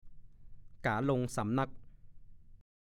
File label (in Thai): "ก๋าลงสำนั่ก", ภาษาโคราช